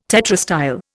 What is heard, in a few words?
"tetrastyle", Indian